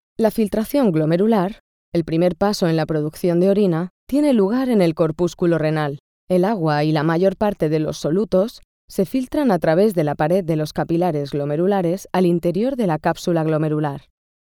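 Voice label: Spanish, Elearning Medical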